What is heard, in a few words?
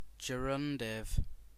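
"gerundive", British English; pronunciations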